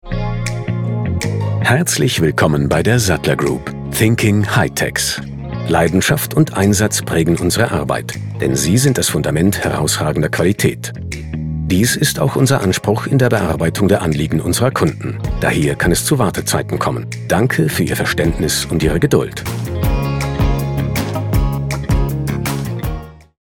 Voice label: Telefonansage

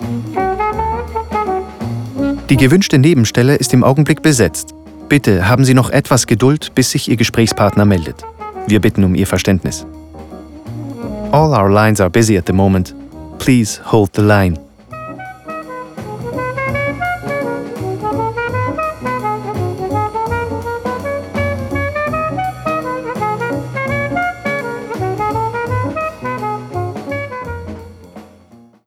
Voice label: Telefon

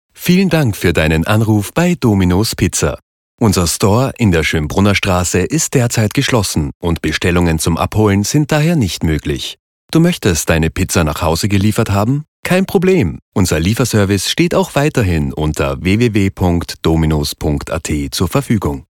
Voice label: Telefonansage; Dominos Pizza

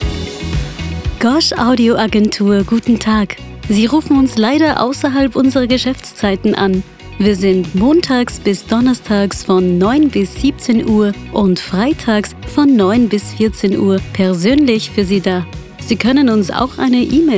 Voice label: Telefonansage

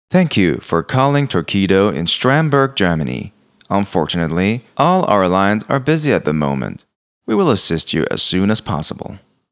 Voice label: Telefonansage; ENG

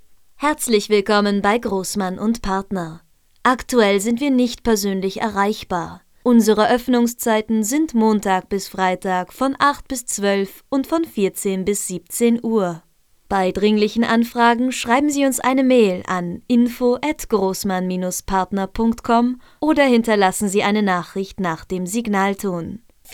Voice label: Telefonansage

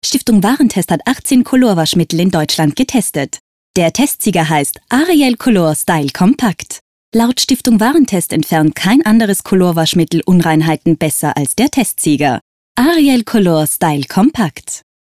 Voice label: Werbung

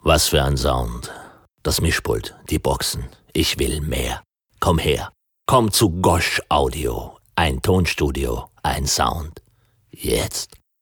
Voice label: zaertlich

